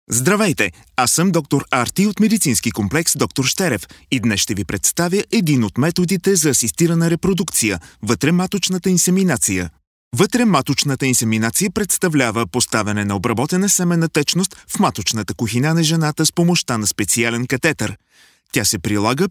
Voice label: bulgarian corporate videos